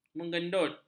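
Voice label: "mengedut", Malay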